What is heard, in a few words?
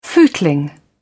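"footling", British English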